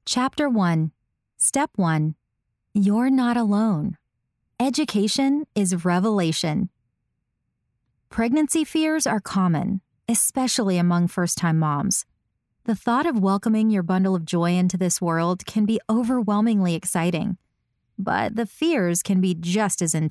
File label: Audiobook nonfiction